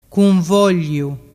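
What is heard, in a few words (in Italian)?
"cunvogliu", Vernazzese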